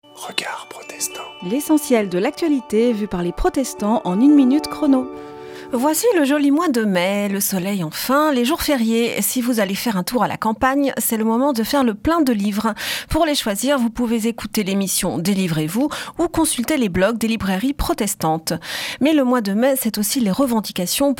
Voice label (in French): Spot radio; Regards protestants